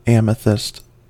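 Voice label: "amethyst", US English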